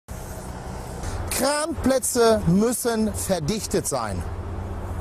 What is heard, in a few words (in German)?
Kranplätze deutsch